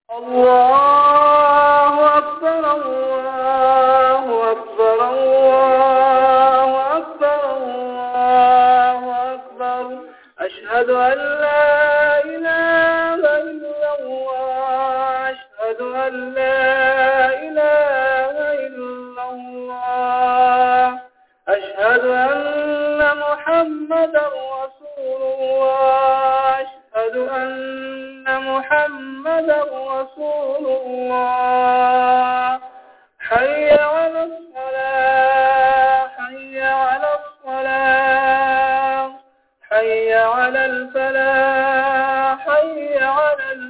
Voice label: IQAMAT; IJTEMA